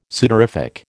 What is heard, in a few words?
"sudorific", American